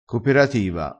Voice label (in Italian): "coperativa", crespinese